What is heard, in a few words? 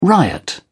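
"riot", British English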